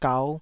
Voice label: "gau5", Taiwanese syllable